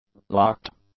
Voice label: "locked", english; adjectives